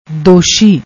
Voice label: "doshi", Punjabi; ਦੋਸ਼ੀ